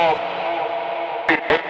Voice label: TECHNO VOCALS 140; Techno2